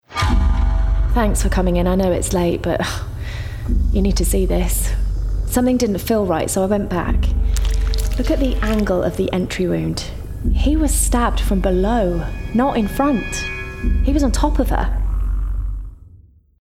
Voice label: Gaming Character Medical Officer natural

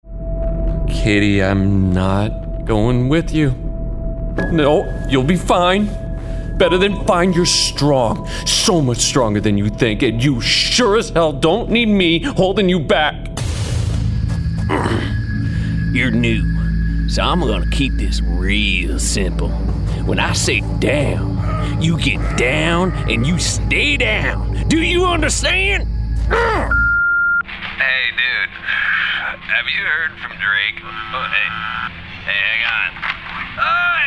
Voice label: Gaming Character Voice

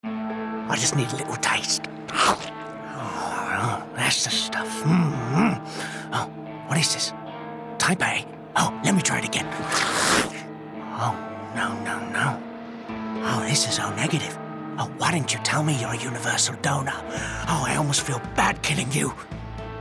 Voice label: London Vampire Gaming

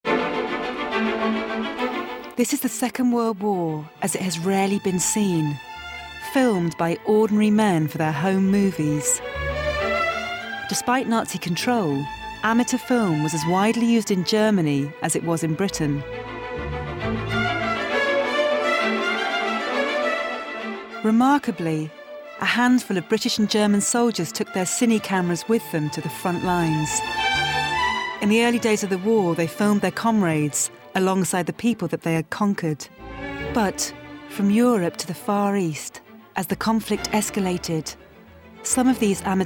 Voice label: Narration

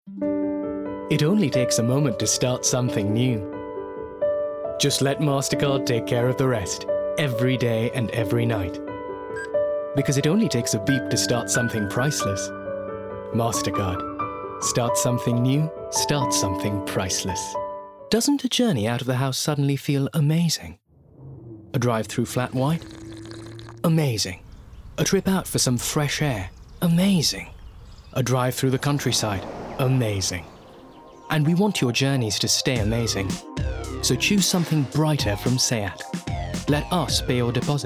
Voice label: Commercials Montage

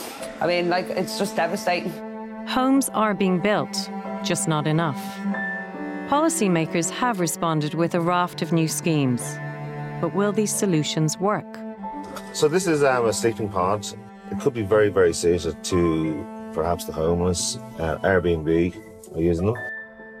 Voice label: Ireland's Property Crisis Doc

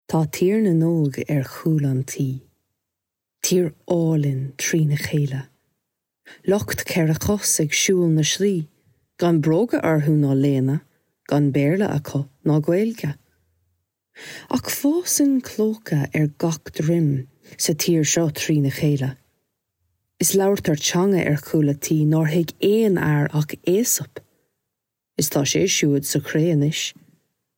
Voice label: Gaelic

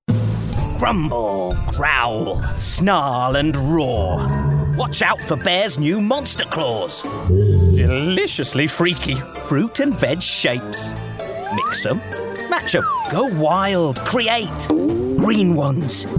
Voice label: Commercial; Monster Claws

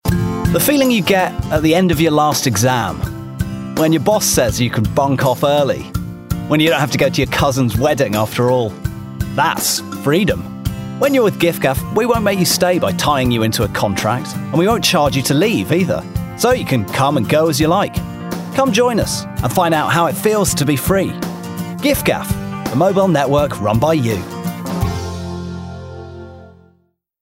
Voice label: Commercial; GiffGaff

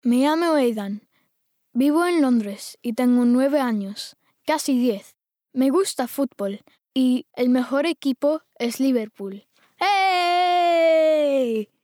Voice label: Intro Spanish

